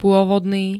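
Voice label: "pôvodný", Slovak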